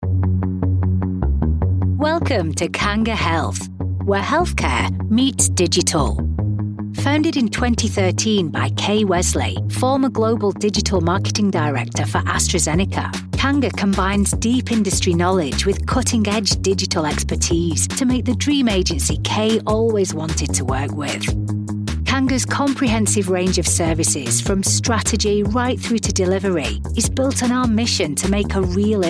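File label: corporate, Northern Authentic Lancashire Voice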